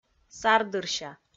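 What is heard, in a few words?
"сардырся", Komi, female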